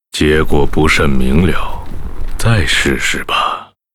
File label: Hero far seer pissed zh